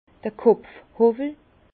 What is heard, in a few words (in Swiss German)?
"kopfhowwel", Bas Rhin; vin; rabot de tête